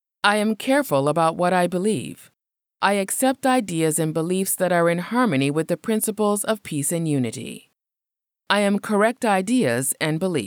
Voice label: English Female; I Am Correct Ideas and Beliefs